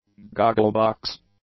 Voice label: "goggle-box", English; nouns